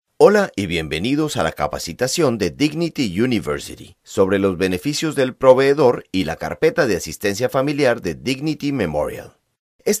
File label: Spanish